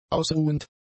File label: "ausruhend", German; adjectives